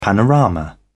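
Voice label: "panorama", British English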